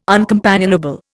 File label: "uncompanionable", Indian